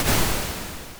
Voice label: dictation